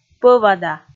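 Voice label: "пӧвада", Komi, female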